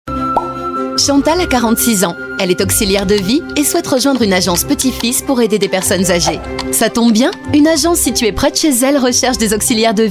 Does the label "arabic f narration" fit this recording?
No